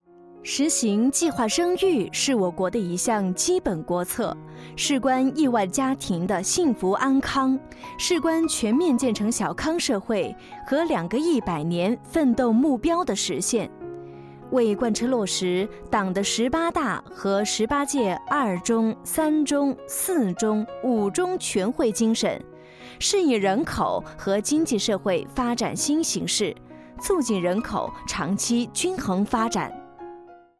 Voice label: Cince Kadin Mandarin Cin Simplified, seslendirme

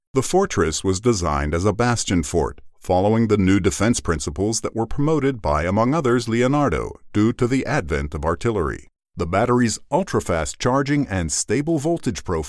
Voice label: Amerikan Ingilizcesi Erkek; Amerikan İngilizcesi Seslendirme

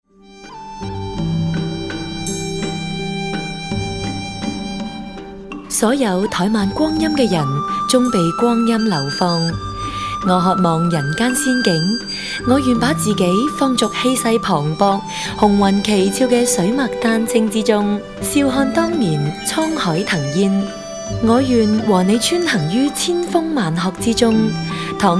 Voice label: Cince Kadin Kantonca Hong Kong, seslendirme

